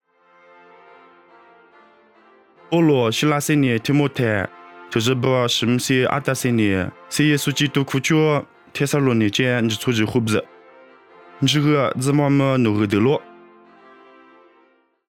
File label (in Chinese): 凉山彝语; 地中海帖撒罗尼迦